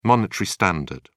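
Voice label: "monetary standard", English, British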